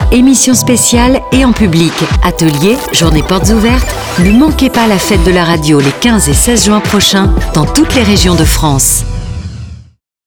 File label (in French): spot Dans toutes les régions de France; Fete de la radio 2023 10sec